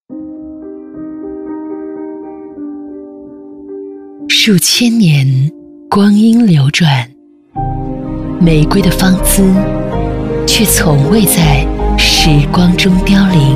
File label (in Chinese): 女国 广告 品牌形象 时尚; 玫琳凯